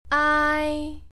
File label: "aai", Cantonese, jyutping